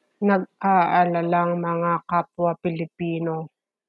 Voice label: Tagalog; worried fellow Filipinos - nag-aalalang mga kapwa Pilipino